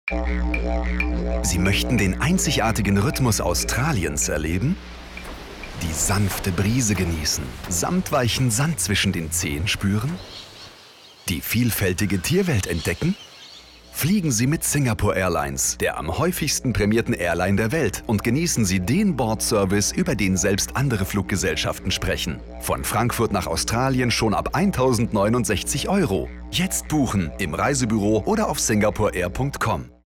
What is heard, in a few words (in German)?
werbung; singaporair